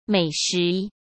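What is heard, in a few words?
"美食", Mandarin Chinese; hsk; chinese-flashcards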